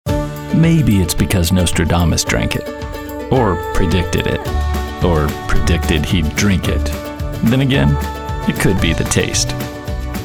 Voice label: Commercial